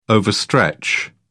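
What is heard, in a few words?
"overstretch", English, British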